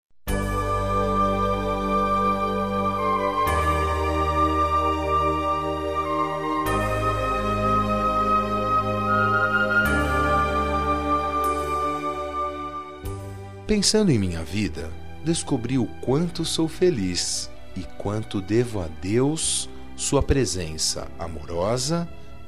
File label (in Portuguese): Dia das Maes de Consideracao, M